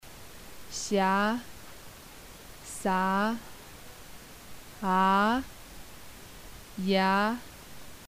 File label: alphabet, Tibetan